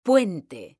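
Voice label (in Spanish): "puente" Spanish, female; mekanta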